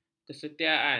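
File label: "kesetiaan", Malay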